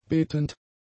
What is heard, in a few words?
"betend", German; adjectives